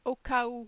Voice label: "au cas ou", French